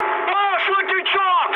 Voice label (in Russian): damaged oil, mood high, speech ru; War Thunder